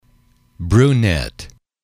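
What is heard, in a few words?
"brunette", male, english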